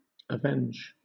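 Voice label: "avenge", British English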